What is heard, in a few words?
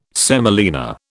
"semolina", British